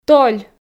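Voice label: "толь", Komi, female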